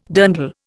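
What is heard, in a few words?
"dirndl", Indian English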